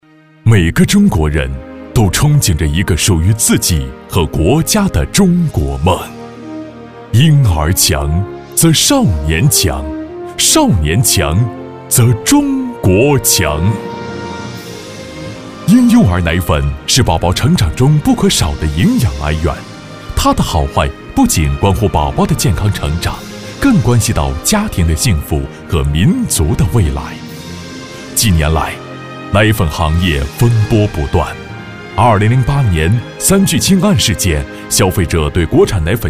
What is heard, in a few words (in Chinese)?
宣传片 企业 温情; 三元奶粉